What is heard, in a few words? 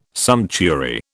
"sumptuary", British